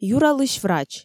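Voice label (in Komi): юралысь врач, Komi, female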